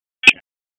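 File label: EMS Dispatch, p25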